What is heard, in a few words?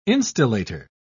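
"instillator", British English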